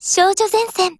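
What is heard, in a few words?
TITLE CALL, Japanese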